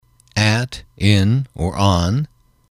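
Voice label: at In On, english, male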